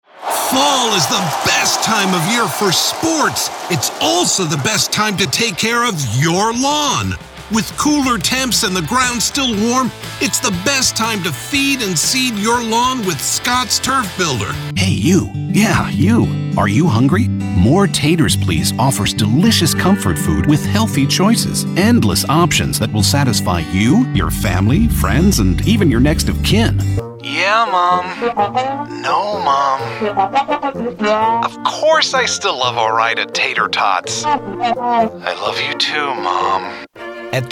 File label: Commercial